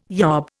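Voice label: "yob", Indian English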